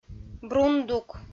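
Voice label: "брундук", Komi, female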